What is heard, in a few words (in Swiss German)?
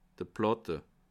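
"platte", Bas Rhin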